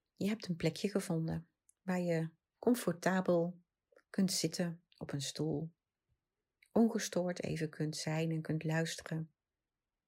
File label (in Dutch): Basismeditatie